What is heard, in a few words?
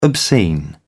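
"obscene", British English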